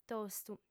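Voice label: "tostu", lingua sarda, female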